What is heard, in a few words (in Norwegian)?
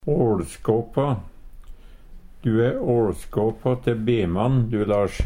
"åLskåpå", Numedalsmål; egna, vera skikka til; Du e åLskåpå te bemann du Lars